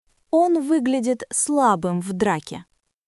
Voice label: Russian, russian phrases insults; ロシア語のネガティブなフレーズ

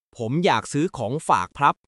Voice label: Thai, basic thai phrases

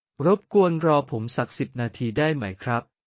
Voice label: Thai, basic thai phrases

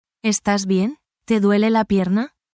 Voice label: spanish, spanish phrases

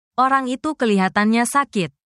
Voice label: indonesia, indonesian negative phrases

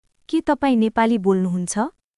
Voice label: Nepali, basic phrases

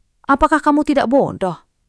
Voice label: indonesia, negative phrases

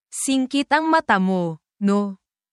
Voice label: tagalog filipino words and phrases, insults; nasty language

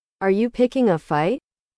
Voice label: english

